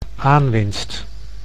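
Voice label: "aanwinst", Dutch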